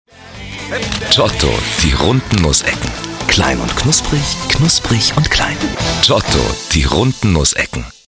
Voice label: FOOD - GENUSS - very sympathetic & natural - best friend like; GIOTTO; German